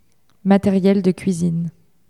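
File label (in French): "materiel de cuisine", French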